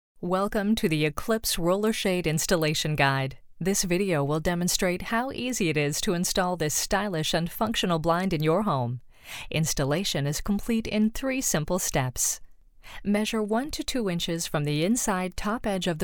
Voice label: english canadian e-learning; voice actors

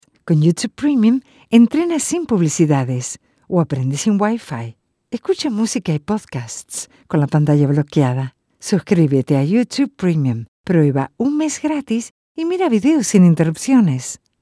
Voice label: spanish latin american promos; presentadora de radio

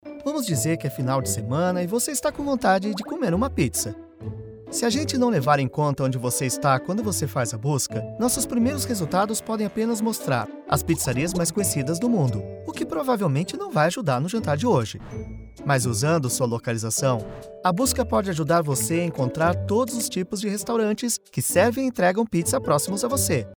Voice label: portuguese brazilian commercial

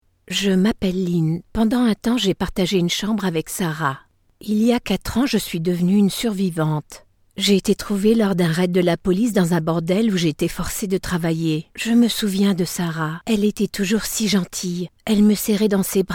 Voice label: french narration

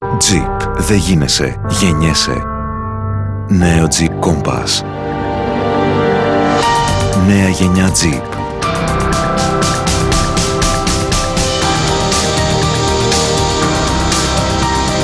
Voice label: greek commercial; Griechisch; Griechenland

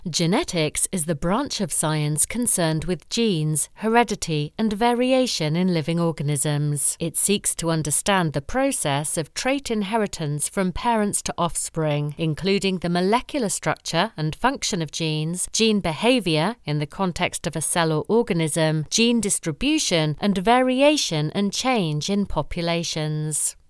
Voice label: english british political ads

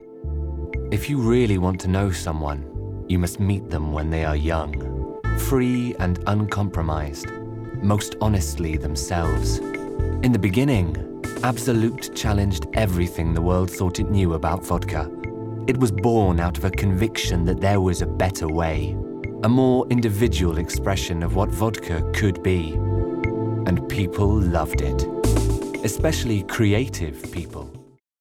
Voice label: English British corporate videos

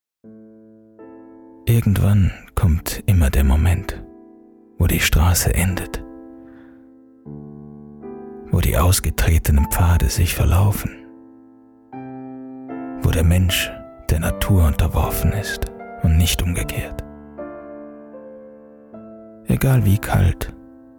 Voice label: german narration

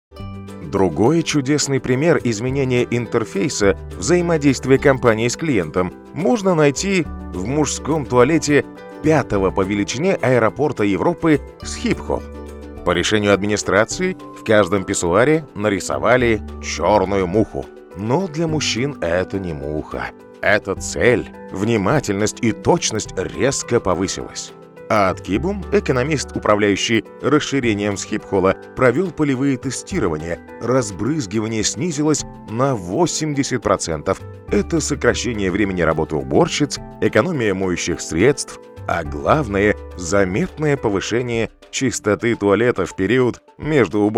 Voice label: russian audiobooks

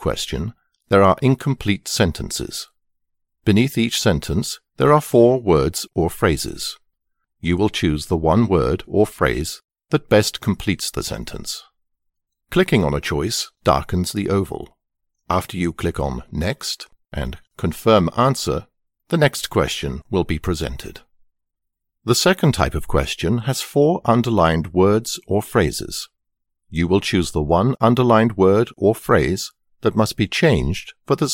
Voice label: english british e-learning

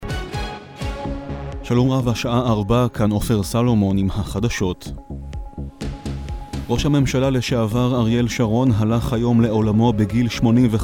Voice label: hebrew others

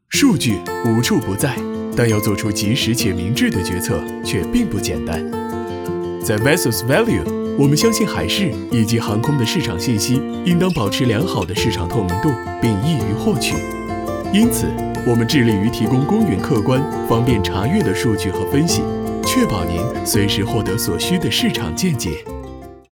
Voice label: chinese mandarin commercial

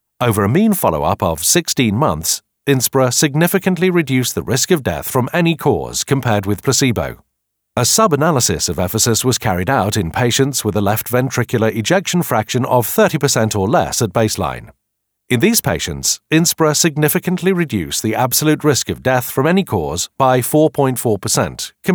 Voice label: english british medical narration

